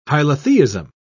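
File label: "hylotheism", British English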